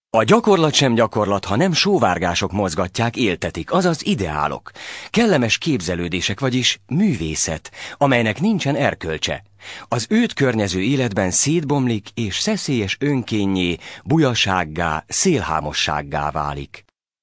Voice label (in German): Ungarn M